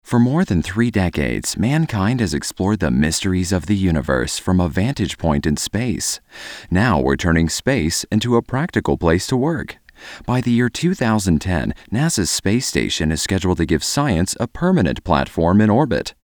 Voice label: narration